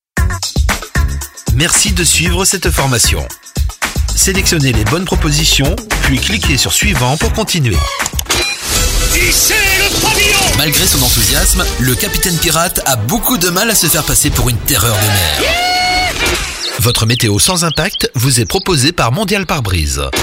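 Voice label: French Commercial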